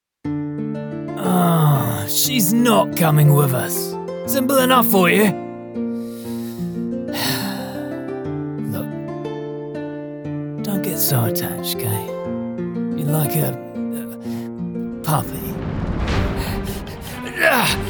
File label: Gruff Warrior UK Character Sample